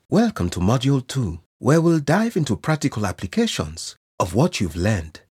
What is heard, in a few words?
Education Structured For Courses Nigerian Accent, voiceover